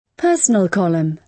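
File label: "personal column", British English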